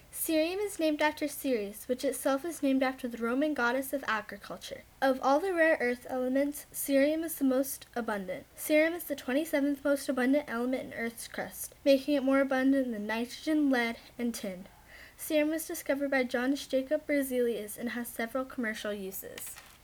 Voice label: cerium narration